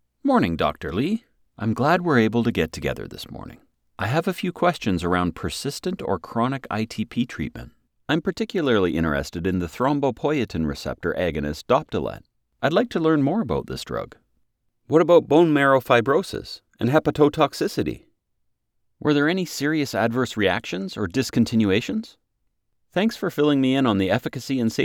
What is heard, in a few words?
Medical Conversational